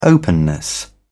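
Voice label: "openness", British English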